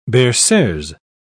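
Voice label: "berceuse", English, British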